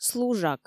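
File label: "служак", Komi, female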